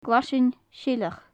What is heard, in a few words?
"glaisean-seilich", gaelic; Faclan Nàdair